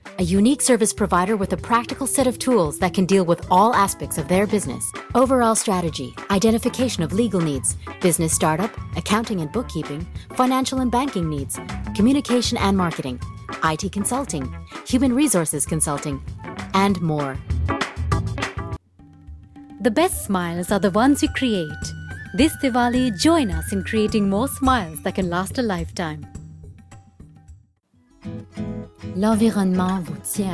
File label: COMMERCIAL, female; REEL EN FR; Female VO; Female VO With Home Studio